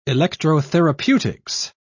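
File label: "electrotherapeutics", British English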